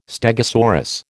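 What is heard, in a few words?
"stegosaurus", American English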